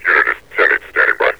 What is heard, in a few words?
unit is 10-8 standing by, metropolice vo; Half Life Alyx